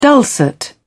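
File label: "dulcet", British English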